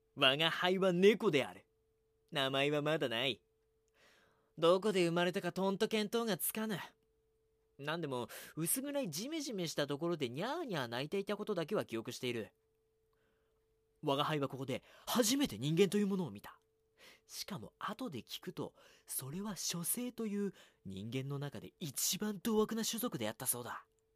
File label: boy